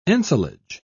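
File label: "ensilage", British English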